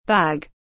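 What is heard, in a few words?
"bag", English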